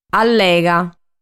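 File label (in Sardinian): "Allega", Sardinian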